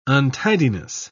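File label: "untidiness", British English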